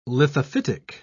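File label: "lithophytic", British English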